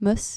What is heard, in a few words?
"mus", Dutch